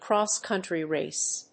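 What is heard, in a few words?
"cross-country race", English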